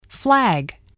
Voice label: "flag", spelling